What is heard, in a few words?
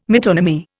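"metonymy", English, Indian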